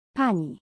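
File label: "pani", Polish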